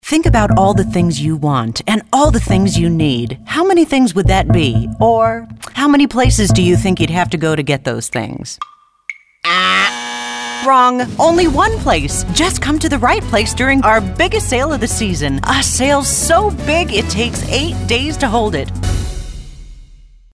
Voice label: upbeat

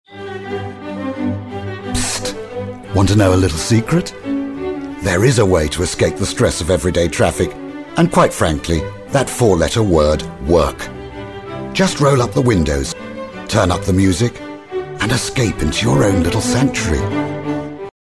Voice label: british secretive clean

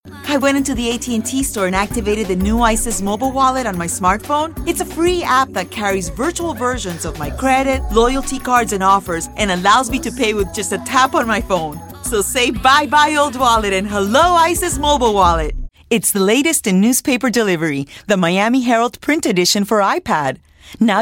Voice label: ENGLISH VO Commercial

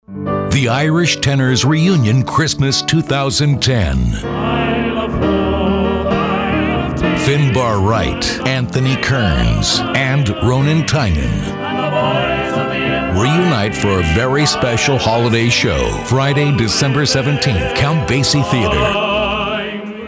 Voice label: smooth and mellow

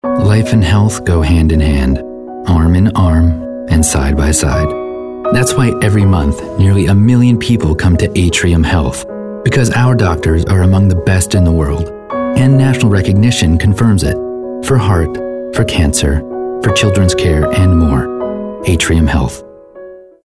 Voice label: Caring

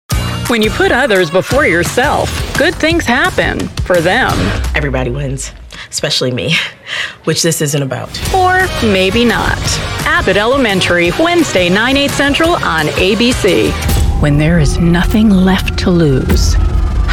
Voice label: Promo

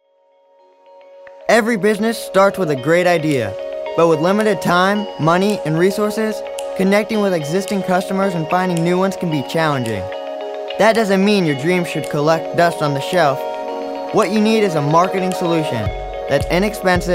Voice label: Motivational

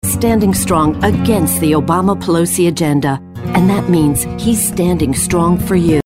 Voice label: Political Ad

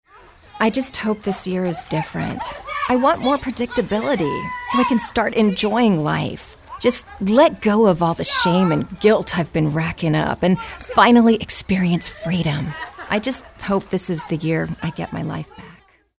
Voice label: real people midlife

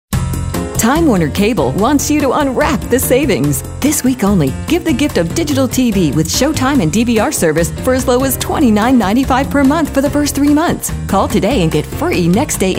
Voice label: perky

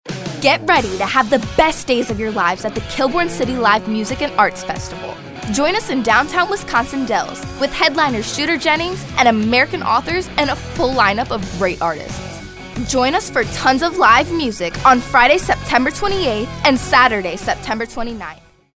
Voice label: upbeat

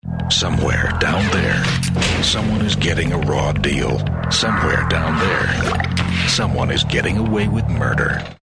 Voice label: gritty

